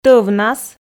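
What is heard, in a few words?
"тӧвнас", Komi, female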